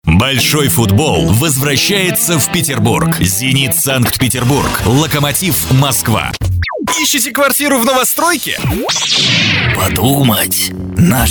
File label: Rus